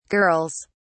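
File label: "girls", English, British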